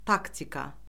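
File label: "taktika", Azerbaijani; Orfoqrafiya Lüğəti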